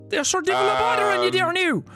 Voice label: speaks with a strong accent; jacksepticeye